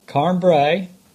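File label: "Carn Brea", English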